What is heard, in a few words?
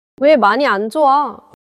neutral, monologue